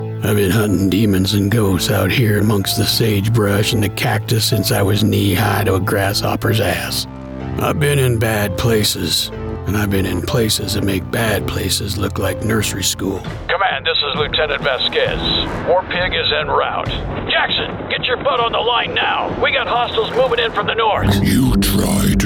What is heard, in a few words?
Video Game Characters